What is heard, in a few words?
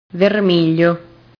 "vermiglio", Italian